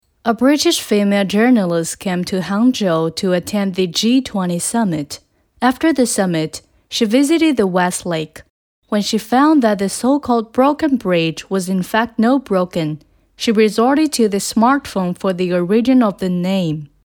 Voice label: 讲述记者; 杭州

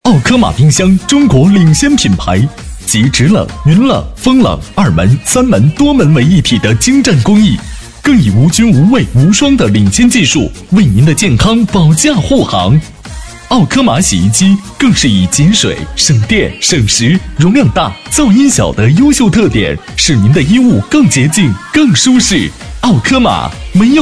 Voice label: 促销; 澳柯玛

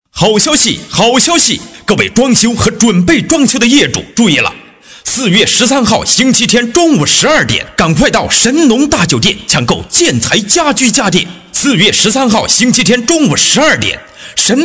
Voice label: 促销 建材家居家电

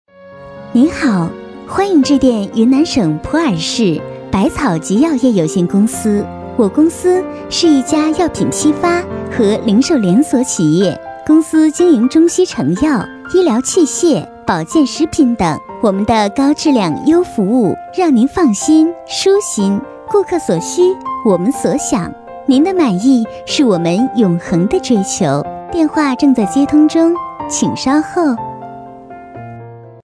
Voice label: 甜美彩铃

